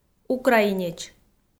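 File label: "украинеч", Komi, female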